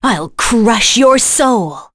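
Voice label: Vox Skill, voices heroes English; Seria; kings raid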